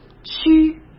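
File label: "需", Mandarin Chinese; hsk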